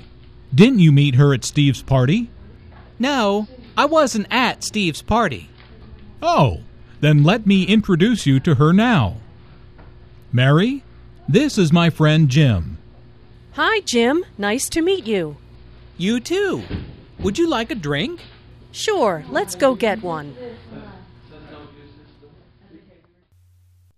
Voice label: dialogue informal introductions, learning american english